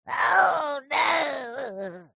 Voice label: cartoon voice funny says oh no